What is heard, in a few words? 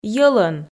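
"йӧлӧн", Komi, female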